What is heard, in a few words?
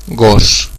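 dog desc, Catalan; tuxpaint-stamps; animals; mammals; dogs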